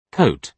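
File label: "coat", British English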